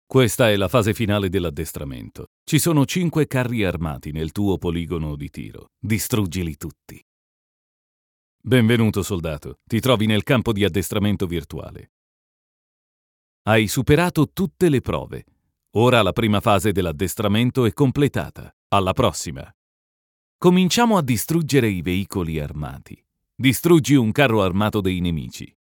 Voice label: Military game ITALIAN